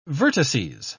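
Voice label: "vertices", British English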